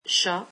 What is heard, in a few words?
"cat", French